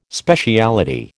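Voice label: "speciality", American English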